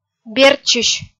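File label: "бертчысь", Komi-Zyrian, female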